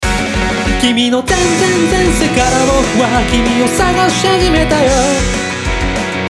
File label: voice commentary